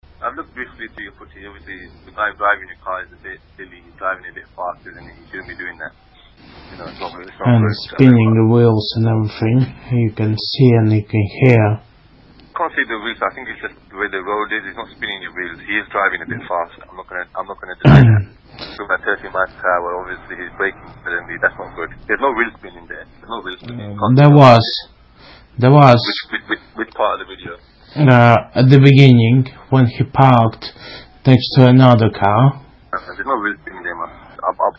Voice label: Phone Call; Swift Parking